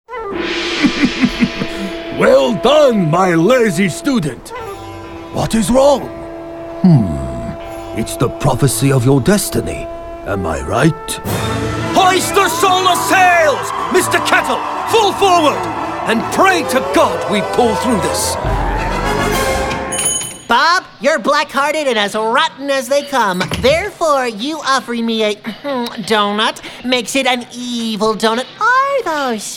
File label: english american cartoon animation